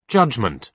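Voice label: "judgment", British English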